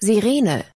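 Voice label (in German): "Sirene", German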